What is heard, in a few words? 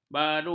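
"baru", Malay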